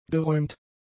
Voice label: "geräumt", German; adjectives